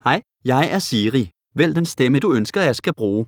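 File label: Danish, Denmark; iOS 26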